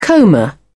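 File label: "coma", British English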